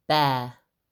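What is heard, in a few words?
"bear", English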